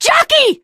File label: jockey, teen girl; Left 4 Dead 2